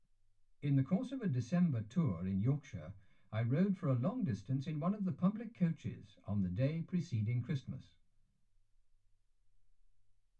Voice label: male speech english